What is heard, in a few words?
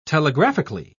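"telegraphically", British English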